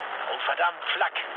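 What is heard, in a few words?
German damaged aa mood low, speech; War Thunder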